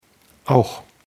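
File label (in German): "auch", German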